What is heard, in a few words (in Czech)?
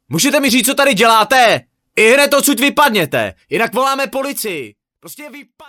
hlaseni